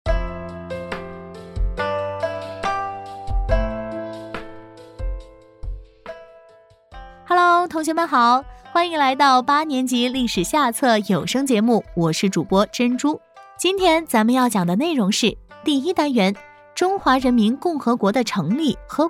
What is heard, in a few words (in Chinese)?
历史教师版; 作业帮部编八下历史